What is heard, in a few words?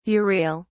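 "urial", British English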